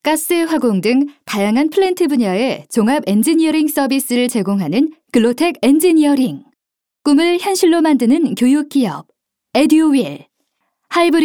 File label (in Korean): 내레이션 교육 부드러운 전문적인